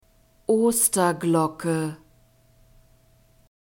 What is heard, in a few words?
"osterglocke", German; nouns gender feminine